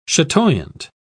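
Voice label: "chatoyant", British English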